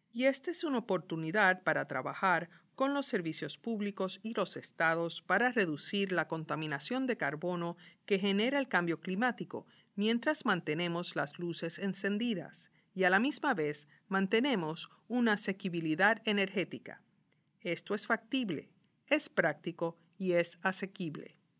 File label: spanish answer